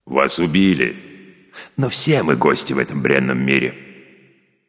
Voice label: slain you, russian; caster